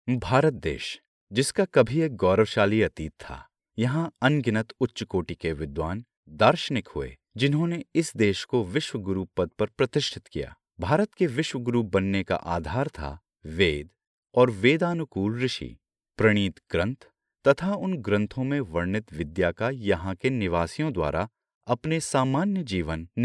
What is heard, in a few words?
male hindi voice over talent narrative